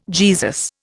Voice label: "Jesus", Indian

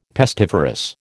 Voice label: "pestiferous", American

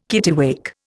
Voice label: "kittiwake", Indian

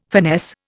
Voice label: "finesse", Indian

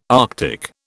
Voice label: "Arctic", British

